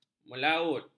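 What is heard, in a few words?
"melaut", Malay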